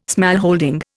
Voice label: "smallholding", Indian

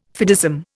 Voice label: "fideism", Indian

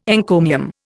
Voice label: "encomium", Indian

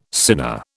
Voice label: "sinner", British

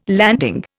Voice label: "landing", Indian

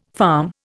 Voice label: "farm", Indian

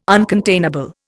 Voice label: "uncontainable", Indian

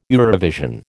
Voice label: "Eurovision", American

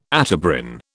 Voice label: "Atebrin", British

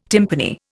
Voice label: "timpani", Indian

